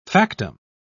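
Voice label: "factum", British English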